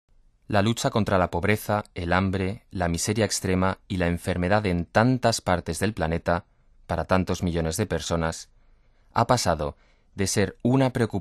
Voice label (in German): Sachtext spanisch, maenner